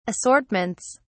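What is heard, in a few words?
"assortments", British English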